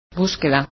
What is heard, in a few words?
paging, spanish